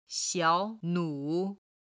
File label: "xiao3nu3", chinese